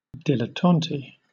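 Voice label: "dilettante", British English; pronunciations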